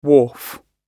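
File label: "wharf", British English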